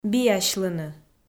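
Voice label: "биасьлыны", Komi-Zyrian, female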